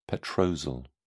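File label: "petrosal", English, British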